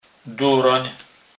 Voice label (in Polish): "doren", kurpiow